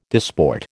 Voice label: "disport", American